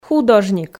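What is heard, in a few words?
"художник", Komi, female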